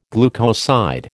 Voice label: "glucoside", American